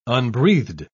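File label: "unbreathed", British English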